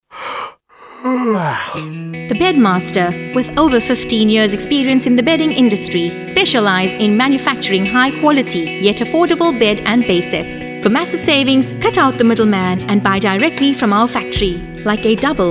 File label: Commercial; The Bedmaster